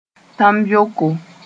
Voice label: "tãmbeoko", moore

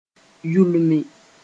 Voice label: "yulmi", moore

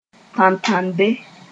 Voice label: "tãntãnde", moore

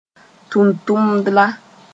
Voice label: "tʋntʋmdla", moore; occupation

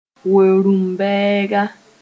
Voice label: "we-rũm-bɛɛga", moore

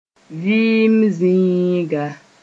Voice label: "vɩɩm zĩiga", moore

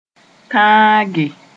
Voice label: "tãage", moore

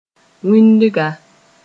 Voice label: "wĩndga", moore

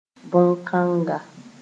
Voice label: "bõn-kãnga", moore

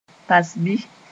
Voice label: "tasbi", moore

